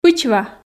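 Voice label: "пычва", Komi, female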